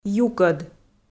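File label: "юкӧд", Komi, female; kpv audio dict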